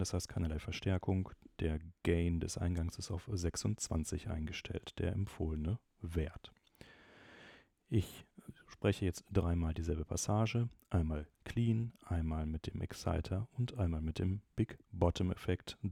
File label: Rode Procaster Aphex; Rodecaster